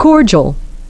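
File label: "cordial", english pronunciation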